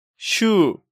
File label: "Syu", Korean